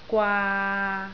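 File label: "gwaa3", Cantonese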